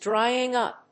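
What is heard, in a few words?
"DRYING-UP", English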